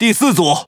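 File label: Speech Pilots Bomber ID, Chinese; World Of Warships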